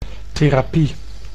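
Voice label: "therapie", Dutch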